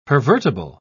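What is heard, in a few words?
"pervertible", British English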